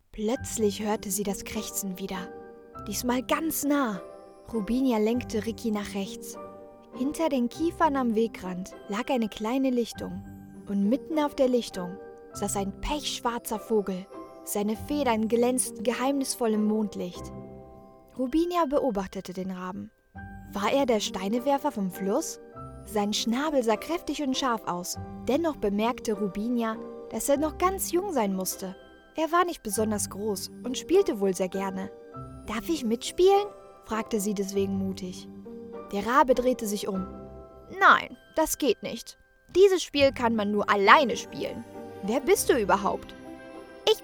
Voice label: German audiobooks